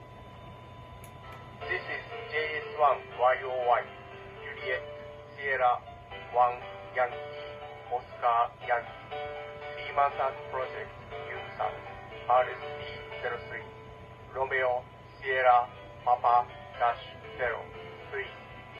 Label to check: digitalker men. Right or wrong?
Right